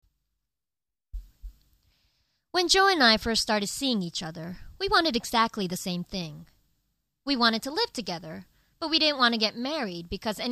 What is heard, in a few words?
story